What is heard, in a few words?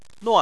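"nuan" fourth tone, Chinese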